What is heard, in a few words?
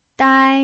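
"dai" first tone, Mandarin Chinese pinyin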